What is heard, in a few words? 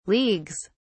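"leagues", English, British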